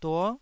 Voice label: "duo9", taiwanese syllable

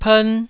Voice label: "pern1", taiwanese

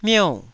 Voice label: "miou4", taiwanese syllables

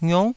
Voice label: "ngiuk9", taiwanese syllables

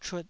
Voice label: "chut2", taiwanese

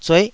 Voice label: "zueh9", taiwanese

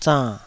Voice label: "zann3", taiwanese syllables

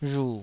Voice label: "rhu3", taiwanese

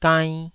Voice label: "gainn2", Taiwanese syllable

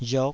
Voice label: "riok5", taiwanese syllable

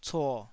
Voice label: "cuo3", Taiwanese

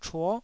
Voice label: "chuo9", Taiwanese

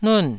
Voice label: "nun4", taiwanese

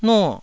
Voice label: "nuo4", taiwanese

